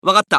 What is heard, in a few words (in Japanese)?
マスケッティア男 移動